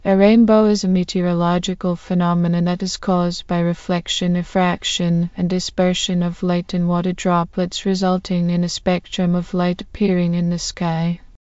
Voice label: speaker, en GB; piper voices; vctk